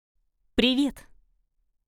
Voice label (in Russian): "Привет", Russian; hello in russian